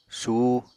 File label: "suh", apatani